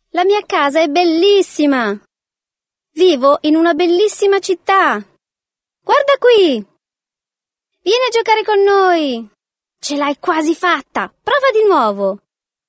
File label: Female Italian Toys